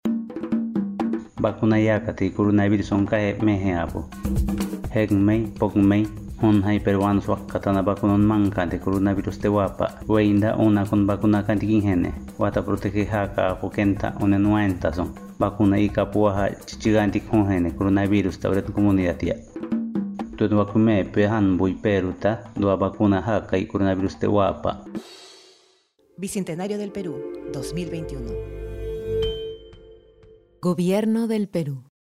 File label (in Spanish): harakbut cusco, spot de radio; vacuna contra el coronavirus; vacunate para protegerte del coronavirus